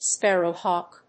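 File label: "sparrow hawk", English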